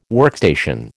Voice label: "workstation", American